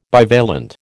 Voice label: "bivalent", American